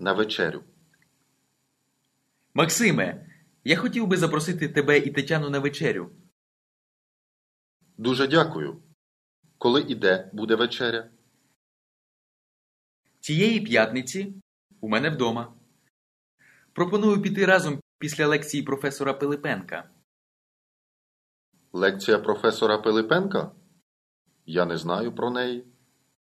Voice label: Dialogue, Ukrainian